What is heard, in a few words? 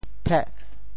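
"theh8", Teochew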